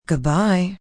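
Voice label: "Goodbye" female; Goodbyes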